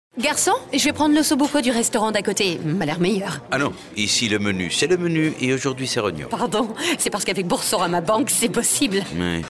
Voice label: pub comedie demonstrative; boursorama

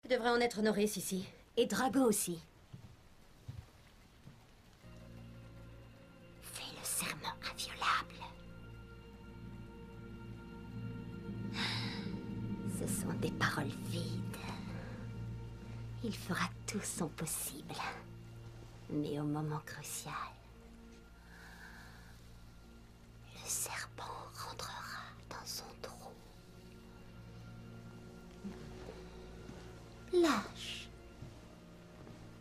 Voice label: doublage; harry potter

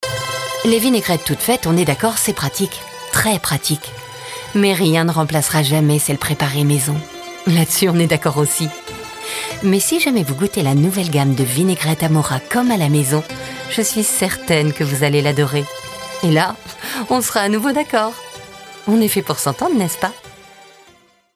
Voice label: pub comedie; amora

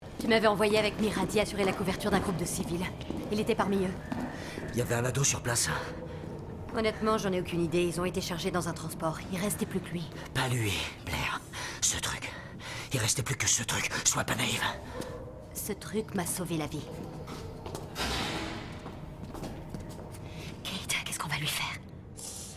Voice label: doublage; terminator

